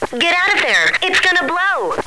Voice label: blow, radio woman